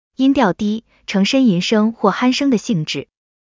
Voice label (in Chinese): 配音